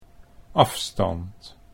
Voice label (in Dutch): "afstand", Dutch